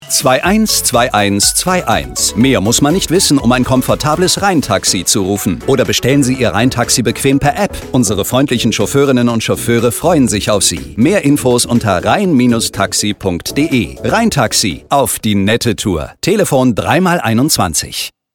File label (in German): Werbung Funkspot Taxi